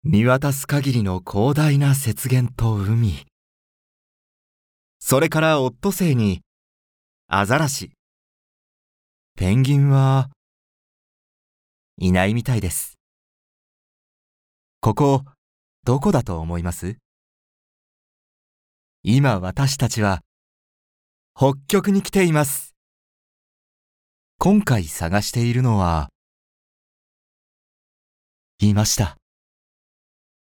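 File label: narration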